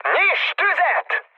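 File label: attack position, mood high, Hungarian; War Thunder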